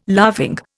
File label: "laughing", Indian